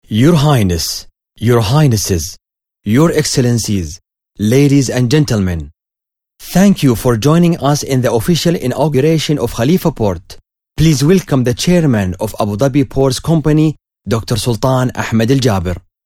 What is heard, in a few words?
To Intoduce The Speakers, English; Khalifa Port